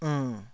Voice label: "ernn3", taiwanese syllables